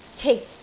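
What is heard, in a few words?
"cik3", Cantonese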